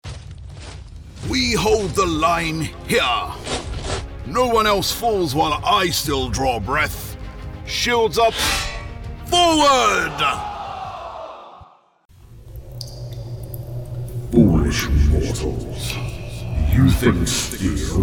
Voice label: Video Game Demo Reel

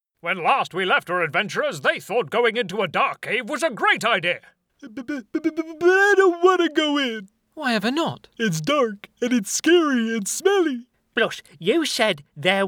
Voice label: character

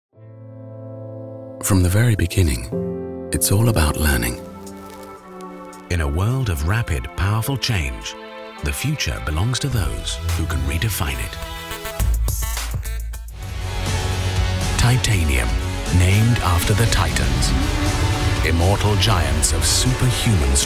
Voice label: corporate short

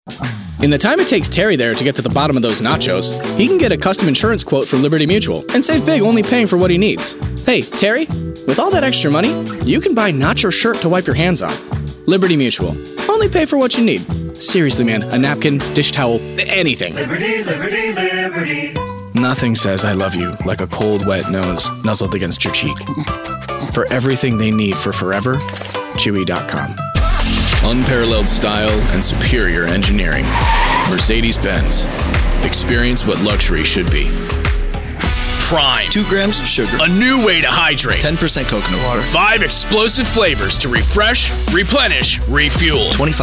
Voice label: Commercial

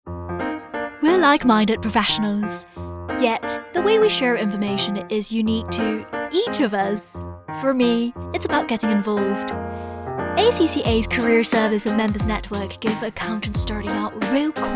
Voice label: explainer videos

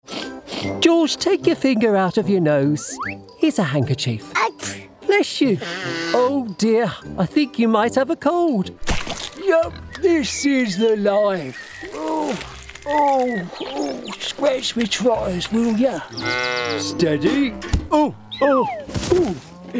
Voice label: Animation

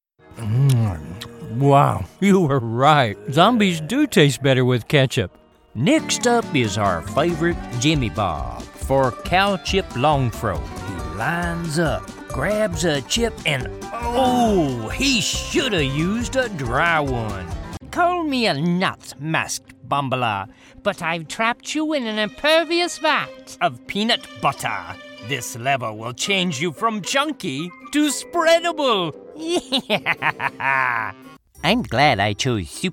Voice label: Animation

